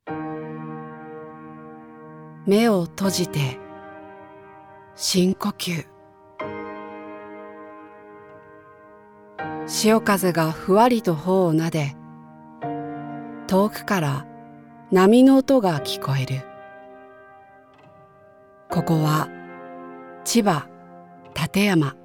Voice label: voice tourism guide